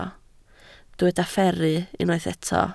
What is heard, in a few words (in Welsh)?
"fferru", Welsh